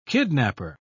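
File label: "kidnaper", British English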